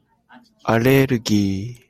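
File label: "allergy", Japanese, male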